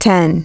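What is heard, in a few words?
"ten", English; iOS 4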